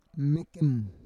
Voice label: "mekem", Roon

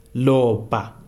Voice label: "blood", Kalanga

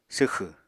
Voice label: "swxẁ", Apatani

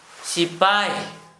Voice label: constable, Gta, Dialect: Hill; sipaj

